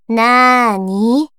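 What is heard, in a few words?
story, Japanese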